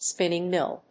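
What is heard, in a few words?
"spinning mill", English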